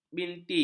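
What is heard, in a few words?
"binti", Malay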